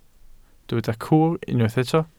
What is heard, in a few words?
"cwr", Welsh